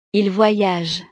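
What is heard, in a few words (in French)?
"ils voyagent", French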